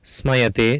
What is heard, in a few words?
"smayate", Sanskrit